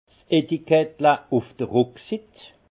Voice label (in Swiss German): "rucksit", alsace; vin